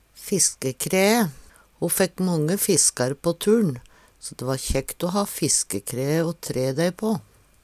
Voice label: "fiskekraee", Numedalsmål

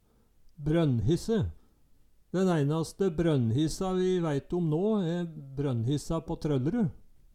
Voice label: "brønnhisse", Numedalsmål

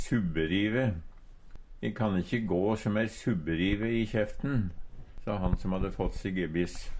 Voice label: subberive, Numedalsmål

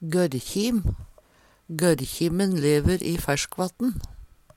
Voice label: gorrkjim, Numedalsmål; Substantiv hankjønn

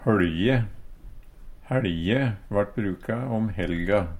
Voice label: holje, Numedalsmål; Personnamn

